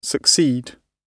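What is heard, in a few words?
"succeed", British English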